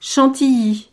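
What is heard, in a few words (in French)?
"chantilly", French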